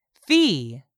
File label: "fee", English; 3000 words